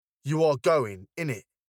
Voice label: "You are going innit", British